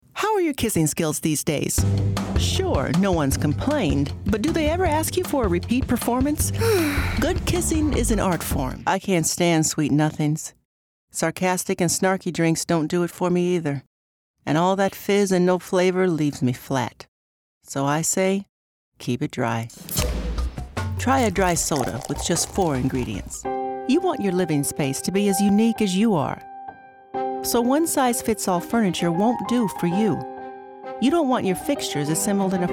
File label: Commercial